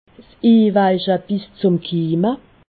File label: "inweichekimme", alsace